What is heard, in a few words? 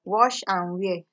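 "wash and wear", Nigerian Pidgin, female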